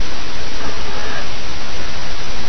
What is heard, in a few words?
old man speed reduced with increase pitch